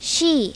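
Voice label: "she", English; My Talking Dictionary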